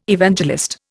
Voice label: "Evangelist", Indian

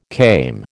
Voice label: "came", American

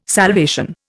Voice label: "salvation", Indian

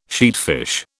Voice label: "sheatfish", British

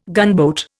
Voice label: "gunboat", Indian

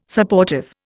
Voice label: "Supportive", Indian

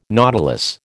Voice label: "nautilus", American

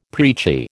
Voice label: "preachy", American

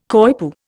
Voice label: "coypu", Indian

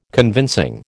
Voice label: "convincing", American

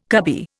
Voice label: "cubby", Indian

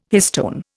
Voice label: "histone", Indian

